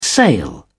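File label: "sail", British English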